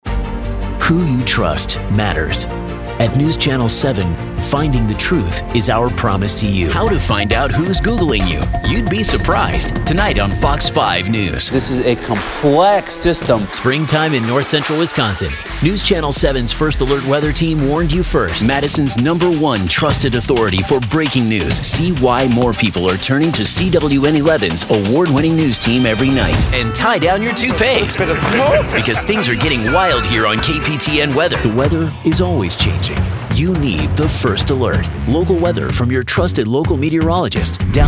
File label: TV Affiliate, voice-over